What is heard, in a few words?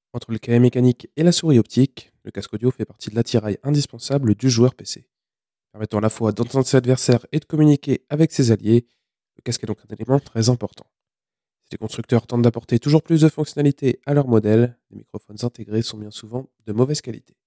yeti gx soundtest broadcaster